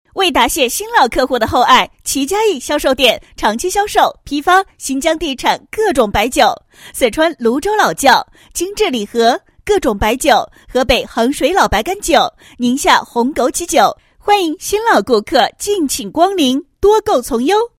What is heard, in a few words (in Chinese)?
女 促销广告; 旗佳益销售店促销广告